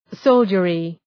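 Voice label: "soldiery", English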